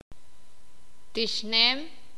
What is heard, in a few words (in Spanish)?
derramarse, sakapulteko